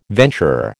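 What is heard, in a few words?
"venturer", American English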